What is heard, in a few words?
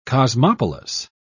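"cosmopolis", British English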